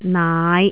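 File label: "naai5", Cantonese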